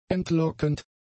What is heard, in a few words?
"entlockend", German; adjectives